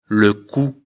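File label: "cou", French; afrikalan; lecture fr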